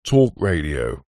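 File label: radio production un processed male voice says talk radio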